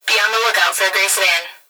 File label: Emergency Human Female Voice Police Dispatch Be On The Look Out For A Grey Sedan; emergency effects